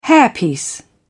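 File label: "hairpiece", British English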